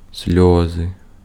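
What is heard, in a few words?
Ukrainian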